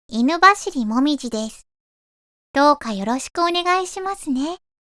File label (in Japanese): イメージボイス 自己紹介; 椛